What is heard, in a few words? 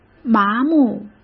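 "麻木", Mandarin Chinese; hsk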